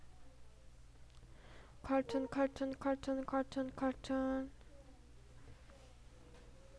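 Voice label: "cartoon", spoken word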